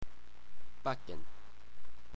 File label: "backen", englisc